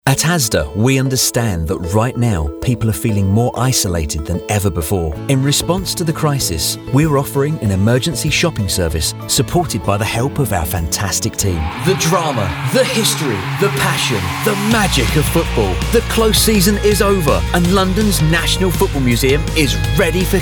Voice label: commercial